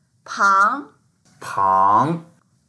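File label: "pang2", Mandarin Chinese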